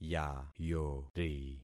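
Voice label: "yayori", concatenated syllables; Affixing experiment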